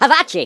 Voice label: "fireball", Irish; Worms Armageddon

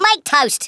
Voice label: "INCOMING", Australian; Worms Armageddon

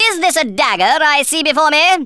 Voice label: traitor, Speech Thespian; Worms Armageddon

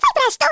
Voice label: HURRY, Speech Italian; Worms Armageddon

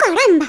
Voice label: "OOPS", Spanish

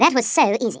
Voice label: "FLAWLESS", Stiff Upper Lip

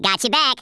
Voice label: revenge, Redneck

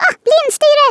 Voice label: "oi nutter", Swedish

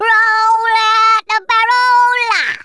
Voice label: fireball, Speech, Geezer; Worms Armageddon

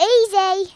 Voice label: flawless, Kidz; Worms Armageddon

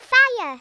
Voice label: "fire", Kidz; Worms Armageddon

